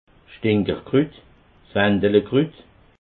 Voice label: "stinkerkrut", alsace

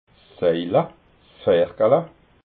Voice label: "saila", alsace

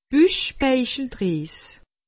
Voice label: "buchspeicheldries", alsace; medecine

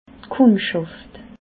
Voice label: "kundschaft", alsace

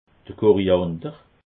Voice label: "koriander", alsace

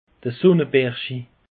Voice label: "sonnenberschi", alsace; peche

